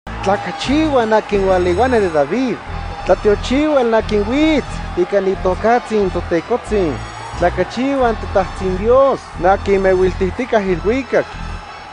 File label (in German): Audio Nahuatl